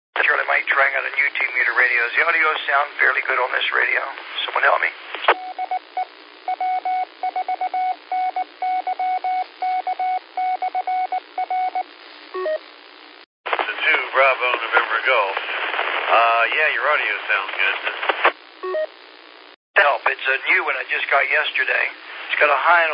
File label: qso rec simplex logic